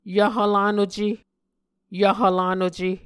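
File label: "yvhv lanuce", Muscogee words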